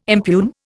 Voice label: "impugn", Indian